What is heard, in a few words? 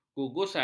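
"gugusan", Malay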